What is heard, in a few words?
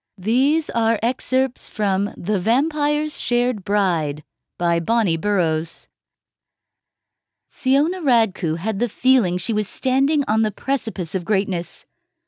Narrator